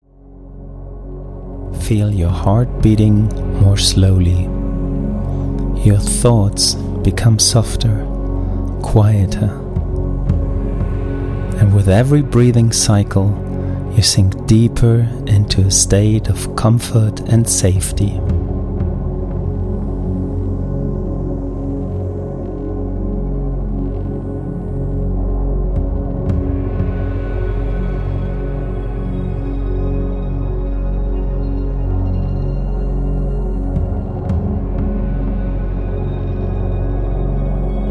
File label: breathwork 4 7 11, guided meditations; englisch; nervous system; breath magic 4 7 11